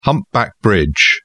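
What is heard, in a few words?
"humpback bridge", English, British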